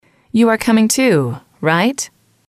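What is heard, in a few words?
you are coming to right, female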